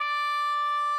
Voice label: shout ai D6; 音MAD; 你的名字; mitsuha